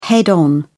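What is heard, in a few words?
"head on", British English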